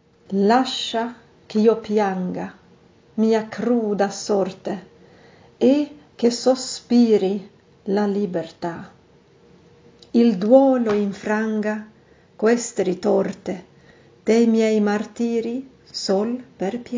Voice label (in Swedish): Dagen är nära uppläst text